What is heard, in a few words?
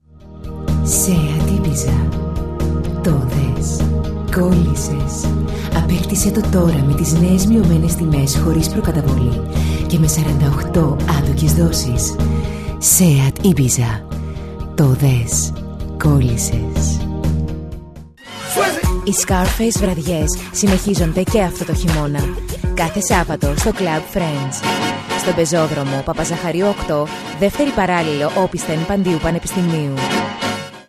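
Greek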